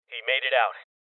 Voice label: Cop Evacuated Male Young; rebel cops 2019; Voices

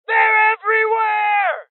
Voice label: Male Young; Voices HeavyLosses; Rebel Cops

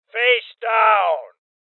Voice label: Order To Surrender Male Old

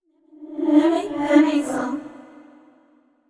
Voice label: sad; yamiko

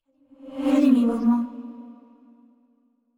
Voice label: sad; yamiko

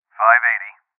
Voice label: Voices EnemySpotted Male

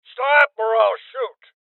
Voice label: Voices Freeze Male Old; Rebel Cops

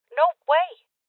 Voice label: Voices Refuse Female; rebel cops 2019 gamerip

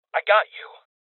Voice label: Voices Heal Male; Rebel Cops

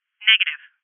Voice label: Voices Refuse Female

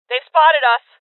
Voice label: Voices Alarm State Female

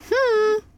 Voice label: Emote Female Hello

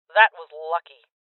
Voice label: Voices Armor Shot Female; rebel cops 2019 gamerip

